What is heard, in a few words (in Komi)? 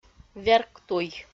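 "верктуй", Komi, female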